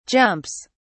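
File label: "jumps", British English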